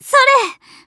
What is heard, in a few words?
attack, Voice Line, JPN; Eternal Return; Tia